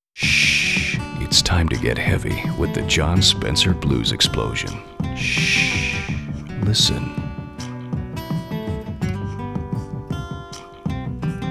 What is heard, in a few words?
radio ad